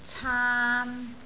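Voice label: "caan3", Cantonese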